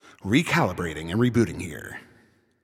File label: Announcer Waiting For Players After Score